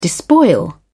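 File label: "despoil", British English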